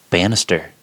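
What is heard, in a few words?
"banister", US English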